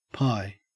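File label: "pie", Australian English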